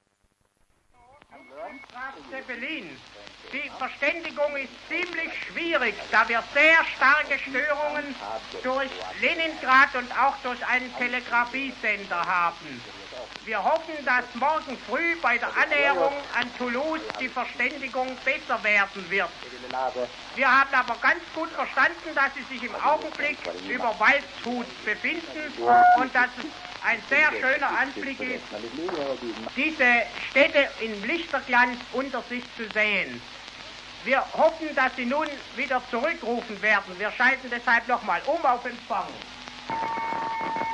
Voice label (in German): reportage von bord des lz 127 anflug auf stuttgart; suedfunk; 1931